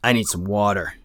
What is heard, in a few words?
dehydrated; Escape from Tarkov; usec2